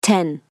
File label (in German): zahlen englisch weiblich 10